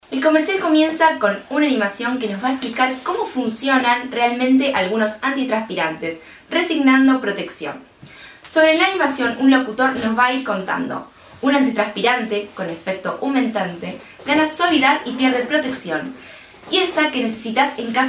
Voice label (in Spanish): Mujeres; Casting Impulse